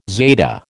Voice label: "zeta", American